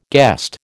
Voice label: "guest", American